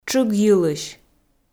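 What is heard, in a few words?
"тшыгйылысь", Komi, female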